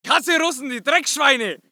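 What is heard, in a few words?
voice german infantry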